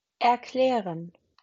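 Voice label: "erklären", German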